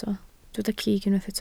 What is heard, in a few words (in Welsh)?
"cig", Welsh